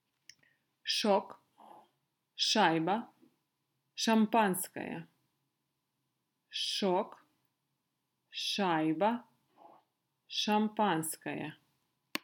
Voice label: Ш, venäjän ässät lausuminen

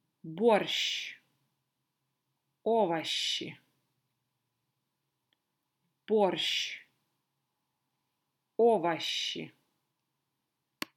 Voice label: Moskovan tyyliin, venäjän ässät lausuminen

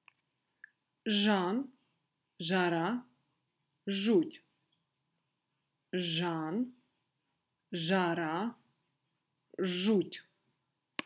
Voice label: Ж, venäjän ässät lausuminen; Venäjän suhuäänteet

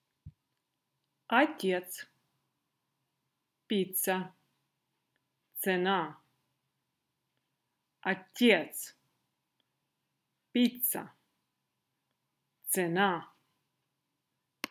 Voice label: Ц, venäjän ässät lausuminen

